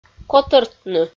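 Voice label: "котӧртны", Komi, female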